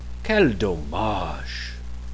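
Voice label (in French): "quel dommage", French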